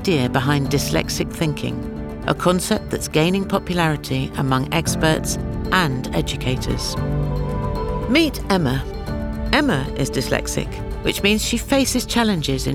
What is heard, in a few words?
Narration Dyslexia Documentary